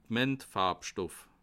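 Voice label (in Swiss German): "pigmentfarbstoff", Bas Rhin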